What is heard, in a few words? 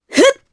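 Attack, Japanese; voices; heroes; Reina; kingsraid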